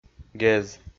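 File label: "гез", Komi, male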